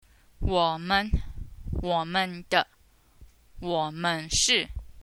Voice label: we, Chinese

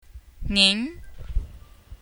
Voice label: nin, a polite/respectable term for Ni3, Chinese; Nin2

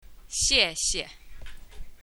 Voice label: thanks, Chinese; Xie4 Xie5

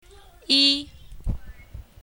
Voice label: 1, yi1, Chinese; Numbers

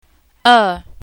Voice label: 2, er4, Chinese

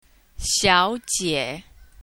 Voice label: Miss, Chinese; Xiao2 jie3

